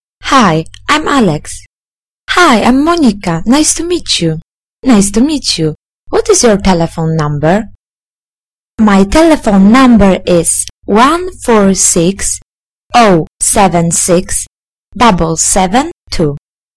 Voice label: dialog